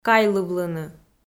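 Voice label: "кайлывлыны" Komi, female; kpv audio dict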